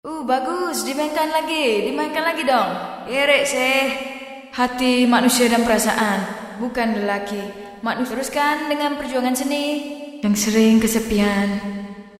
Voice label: TALKING - Malaysian Female; Spectrasonics Heart Of Asia